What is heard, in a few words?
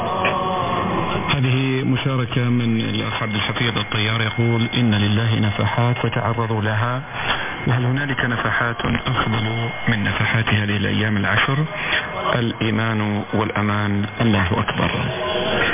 Man Speaking In Arabic